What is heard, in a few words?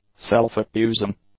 "self-accusing", English; adjectives